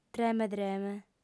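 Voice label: "treme·treme", female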